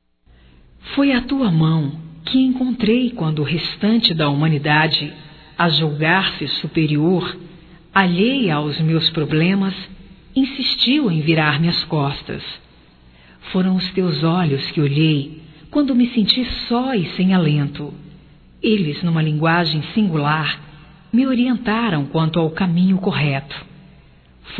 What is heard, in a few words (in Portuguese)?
Amizade Geral Feminino, Telemensagens, Só Voz; Telemensagens 2017